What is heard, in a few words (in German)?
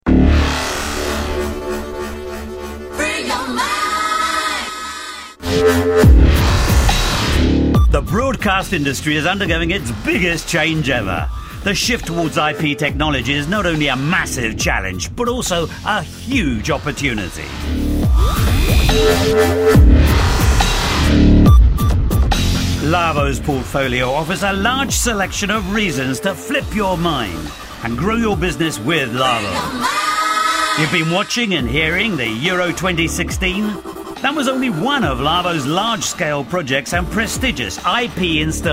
Eng Werbung Spritzig